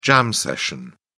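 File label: "jam session", British English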